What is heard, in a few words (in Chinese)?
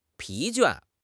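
"疲倦", Chinese; HSK6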